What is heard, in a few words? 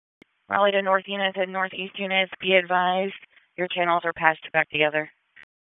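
Police Dispatch, p25; Raleigh; North District; wake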